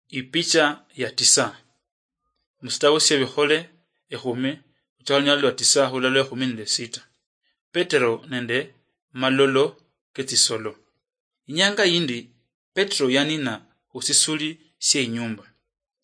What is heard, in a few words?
acts of the holy spirit luyia marachi, drama